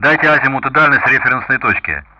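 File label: Speech Sound Russian; DCS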